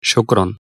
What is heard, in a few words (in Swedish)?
"tack", egyptisk; egyptiska språket